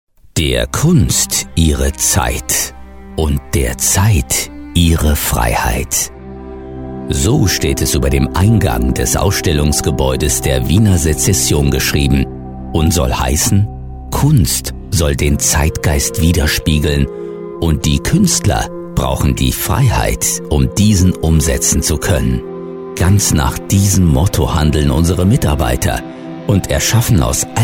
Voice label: doku

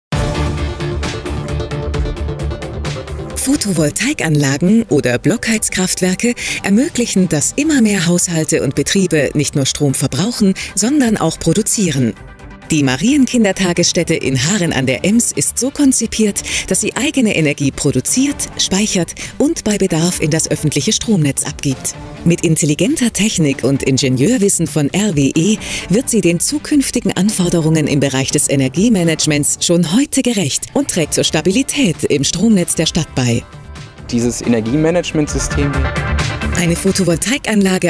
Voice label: Imagefilm Kita; rwe; haren

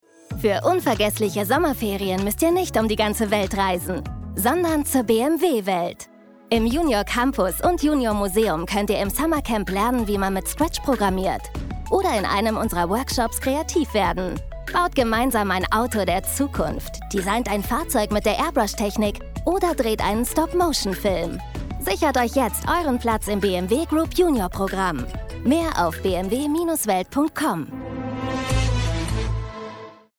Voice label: spot; bmw welt junior campus

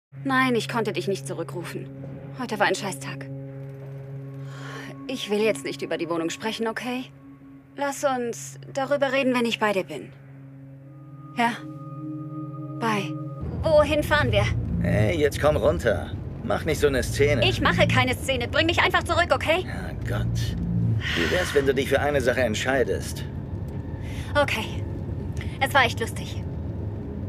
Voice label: synchron; hunted

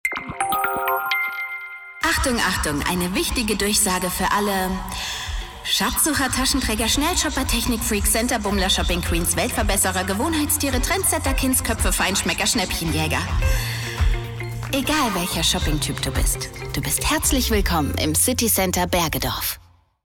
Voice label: Radiowerbung

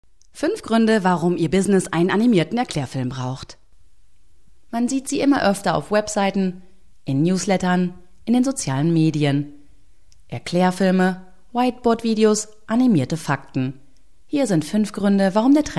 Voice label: erklaerfilm

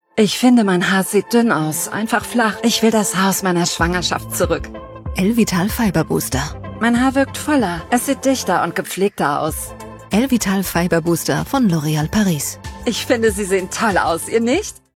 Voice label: werbung, zugewandt, sanft; loreal elvital fiber booster

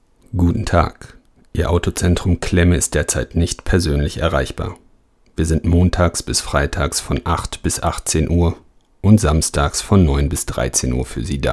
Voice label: Telefonansage

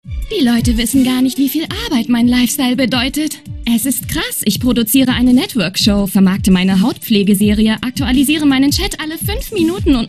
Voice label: synchronmedley animationsfilm

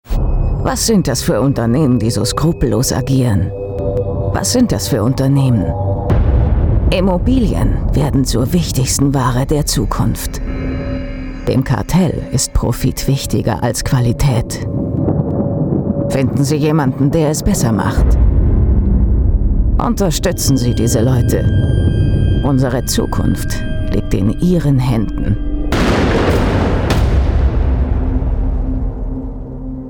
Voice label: synchron alter

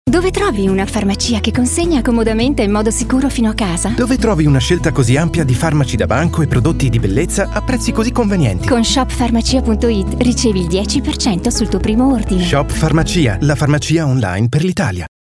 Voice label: Werbung Shop Farmacia

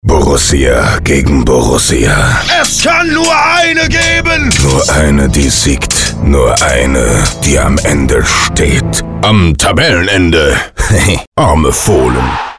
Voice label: tief bedrohlich rollen

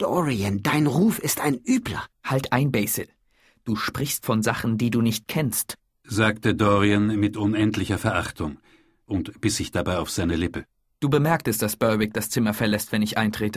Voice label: hoerspielprobe; dorian gray

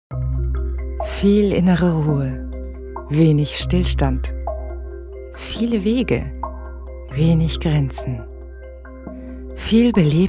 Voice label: werbl soft

